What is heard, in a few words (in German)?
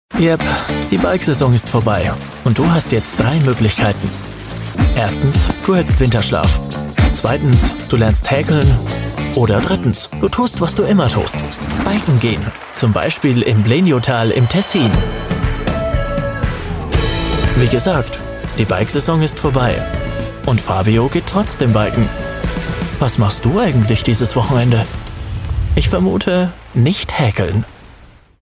Sprecher Image Cool und Laessig; LOFT VOICES